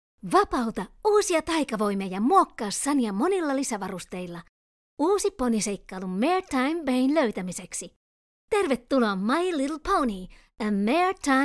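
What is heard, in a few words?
Trailer, Finnish, female; Maretime Bay Adventure; Movie Trailers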